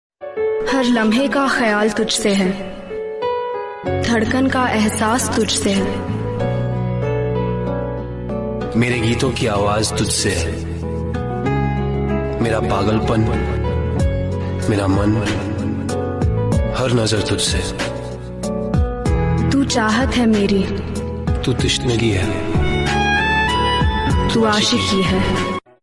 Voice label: Sad Shayari; Aashiqui 2